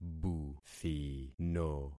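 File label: "buthino", concatenated syllables; Affixing experiment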